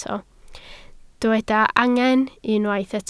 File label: "angen", Welsh